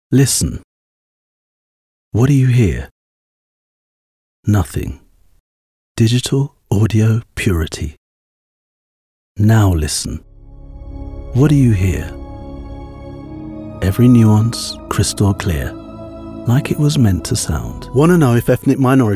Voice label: Voiceover

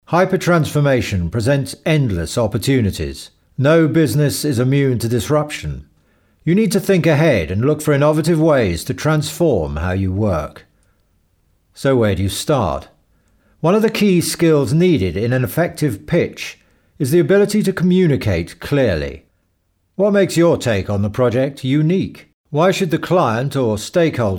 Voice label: corporate reel

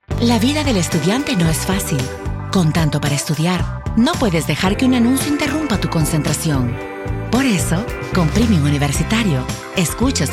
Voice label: SPOTIFY ADS LATAM; FUZZR; ANDROID; PREMIUM UNIVERSITAÌRIO

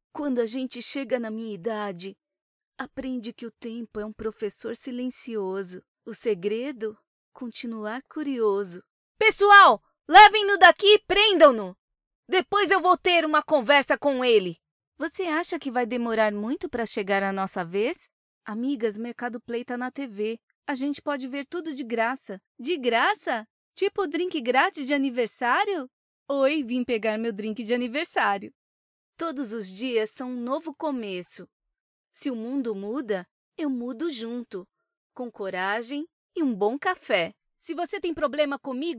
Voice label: PT BR

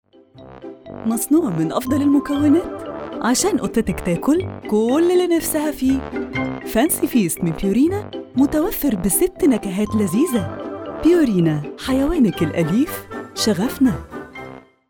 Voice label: Egyptian Commercial Ad; Purina

